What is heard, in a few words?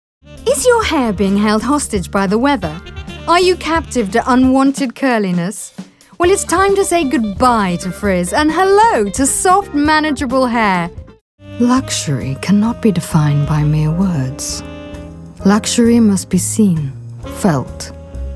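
British English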